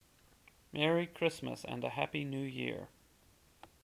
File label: En-US Merry Christmas and a Happy New Year!